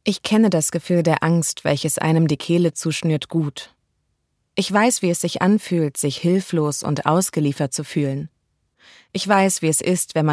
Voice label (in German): Hoerbuch Ratgeber